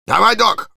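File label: Engineer activate charge, russian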